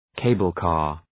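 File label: "cable car", English; Ουσιαστικό; τελεφερίκ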